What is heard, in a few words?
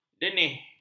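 "denih", Malay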